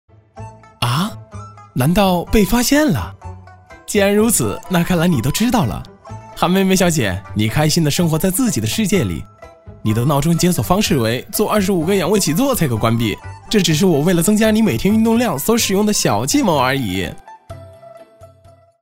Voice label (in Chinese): 男 广告 轻松活泼